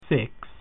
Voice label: latin six